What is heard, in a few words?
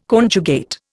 "conjugate", Indian